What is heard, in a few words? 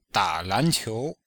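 "da3lan2qiu2", chinese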